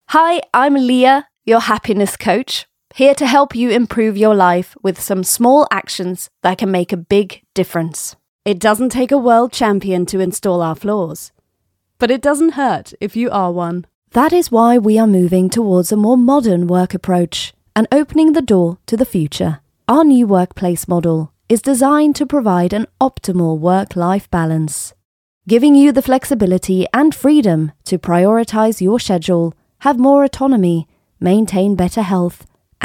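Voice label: Norwegian, female, conversational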